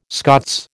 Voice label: "Scots", American